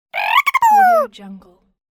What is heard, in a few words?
cartoon character silly voice sound